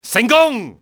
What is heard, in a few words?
"success", cantonese male; XBOX; enemy